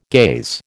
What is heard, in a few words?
"gaze", American